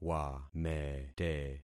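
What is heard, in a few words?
"wamete", concatenated syllables; Affixing experiment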